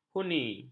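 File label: "huni", Malay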